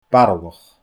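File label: "baralach", Scottish Gaelic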